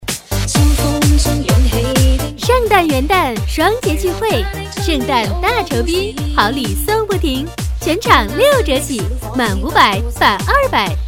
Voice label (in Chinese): 广告双节激情促销